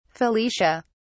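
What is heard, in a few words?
"felicia", British English, dictionary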